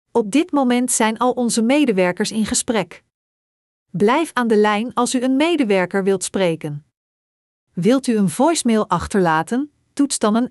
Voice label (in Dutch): alle medewerkers in gesprek, voicemail